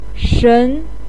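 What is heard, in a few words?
"shen", Mandarin pinyin, second tone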